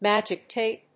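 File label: "magic tape", English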